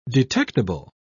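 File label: "detectible", British English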